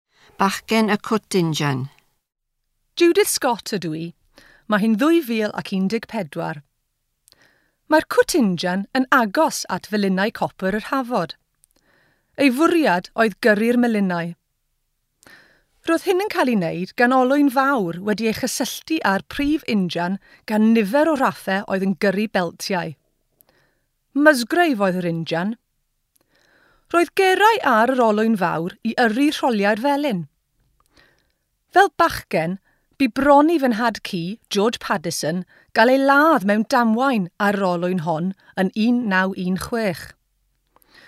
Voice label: The Engine House Boy Welsh